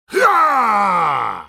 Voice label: ultimate effort; Darius; Character Voices - English